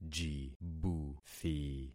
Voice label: "jibuthi", concat syllables; Affixing experiment